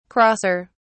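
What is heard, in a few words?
"crosser", British English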